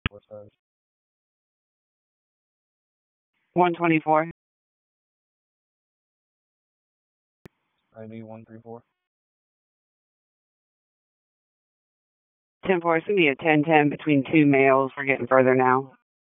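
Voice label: Police District Dispatch, p25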